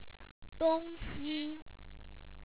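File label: "do1ngxi1", Chinese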